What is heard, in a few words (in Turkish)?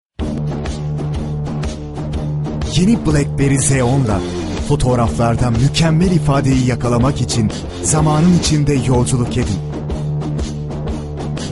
dinamik